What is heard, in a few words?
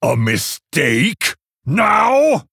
Male Orc Oops